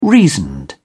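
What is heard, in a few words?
"reasoned", British English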